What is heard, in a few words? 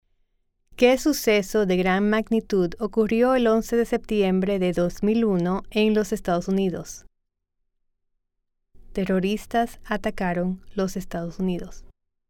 Spanish